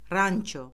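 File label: "rançо", Azerbaijani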